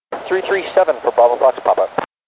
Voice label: Pilot chatter